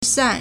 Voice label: "san4", Chinese

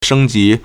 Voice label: "sheng1ji2", Chinese

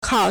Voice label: "kao4", Chinese

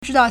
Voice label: "zhi1dao4", Chinese

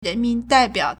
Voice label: "ren2 min2 dai4 biao3", Chinese; 人民代表; rénmín dàibiǎo

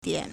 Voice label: "dian3", Chinese

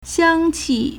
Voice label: "xiang1qi4", Chinese

